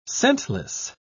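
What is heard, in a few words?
"scentless", British English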